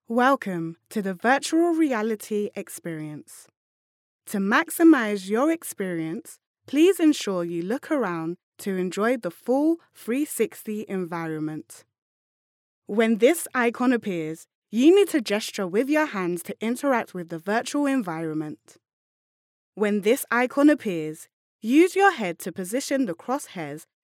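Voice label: English British, female, voice over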